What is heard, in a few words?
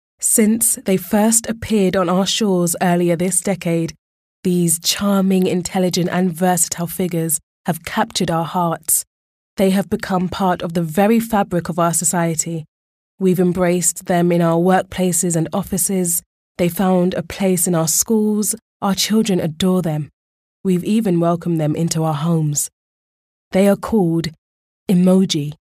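British English, Narration; GoLocalise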